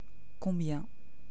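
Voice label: "Combien", French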